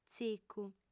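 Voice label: "tzicu", Sardinian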